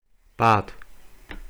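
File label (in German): "bat", German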